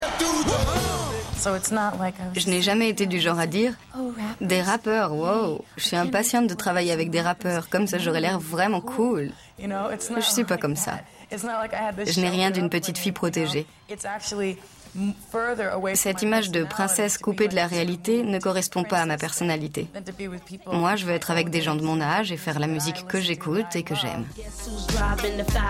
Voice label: VOICE OVER; Arte